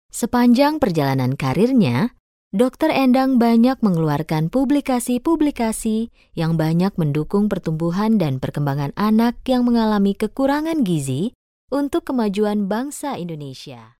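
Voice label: Indonesian, female, narration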